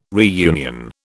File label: "reunion", British